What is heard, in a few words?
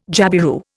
"jabiru", Indian